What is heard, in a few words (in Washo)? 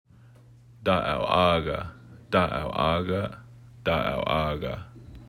Daawaga, Washoe Language; (the edge of the lake); Lake Tahoe